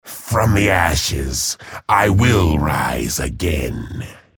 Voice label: chatter negative; respawn